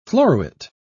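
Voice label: "floruit", British English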